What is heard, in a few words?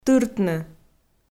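"тыртны", Komi, female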